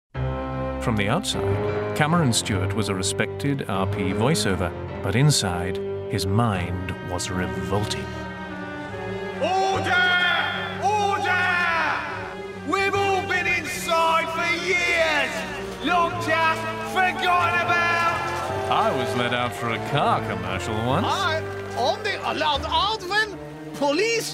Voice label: Animation